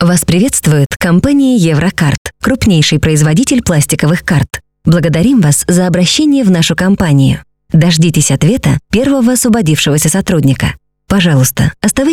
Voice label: IVR